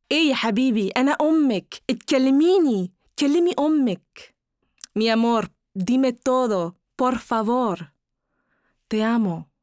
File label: voice Arabic and Spanish